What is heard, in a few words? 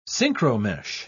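"synchromesh", English, British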